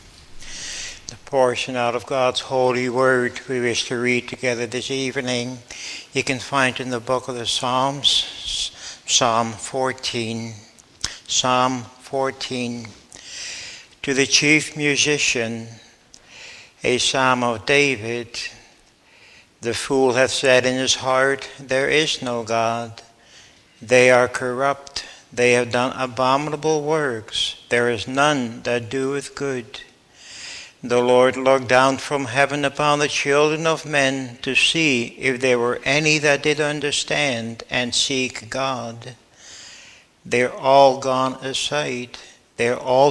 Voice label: Bible Reading